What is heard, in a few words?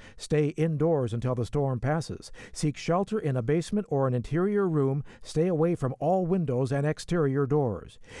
psa hurricane staying home male voice